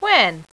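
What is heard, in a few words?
"when", female; voice; Web Clip Empire 150,000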